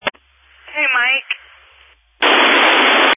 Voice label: Family Radio Service (FRS); Waco Surf Park